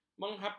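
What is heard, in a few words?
"menghapus", Malay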